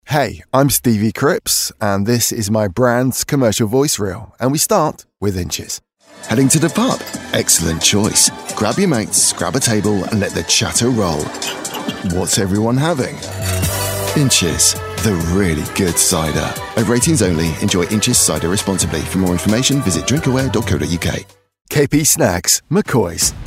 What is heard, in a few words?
brands commercials